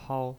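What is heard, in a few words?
"hao", Mandarin Chinese, first tone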